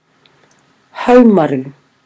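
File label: "haumaru", Maori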